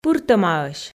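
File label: "пыртӧмаӧсь", Komi, female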